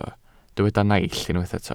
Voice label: "naill", welsh